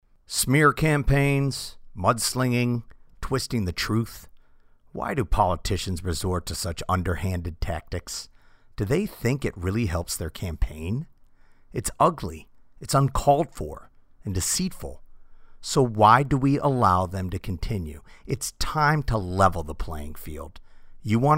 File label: Political TV Ad